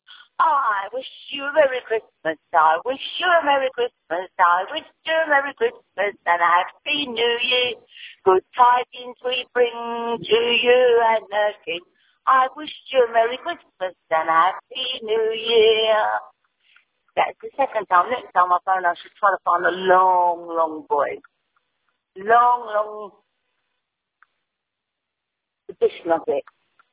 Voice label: voicemail